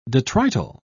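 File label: "detrital", British English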